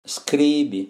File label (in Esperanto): "skribi", Esperanto; vortoj